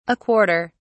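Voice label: "a quarter", British English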